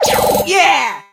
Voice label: drill lead vo